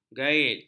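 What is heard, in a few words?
"gait", Malay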